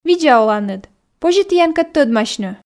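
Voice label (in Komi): видза оланныд позьӧ тіянкӧд тӧдмасьны, Komi, female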